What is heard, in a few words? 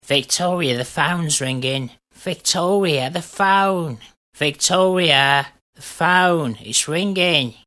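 Squeaky voiced sound-alike ringtone; Novelty; David Beckham; asking Victoria to answer the phone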